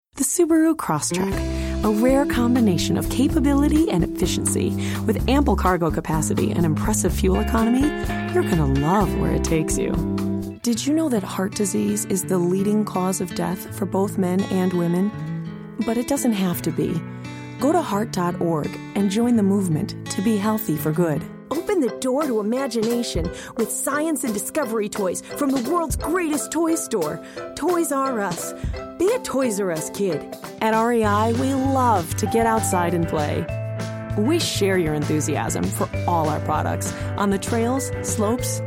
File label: Commercial Female